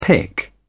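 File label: "pick up", British English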